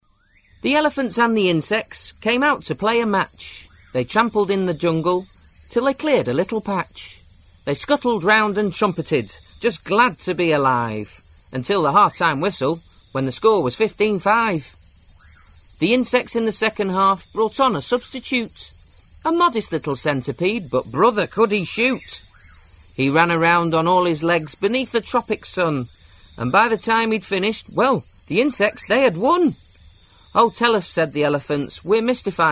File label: Narration Kids verse; Compilation – Various Styles